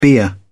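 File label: "bier", English, British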